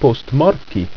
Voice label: "postmar", ido

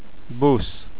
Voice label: bus, hungarian

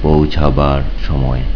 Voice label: "Pauchubar shomoy", Bengali

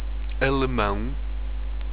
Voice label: german, europortuguese

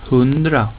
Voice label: "100 hund", Swedish

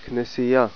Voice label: "church", Hebrew

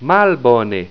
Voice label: "malbone", esperanto

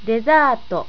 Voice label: "dezato", japanese

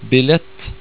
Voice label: "billett", Norwegian

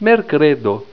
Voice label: "merkredo", esperanto

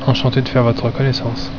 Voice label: Enchante de, French

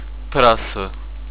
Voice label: square, europortuguese

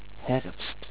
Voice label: FALL, dutch

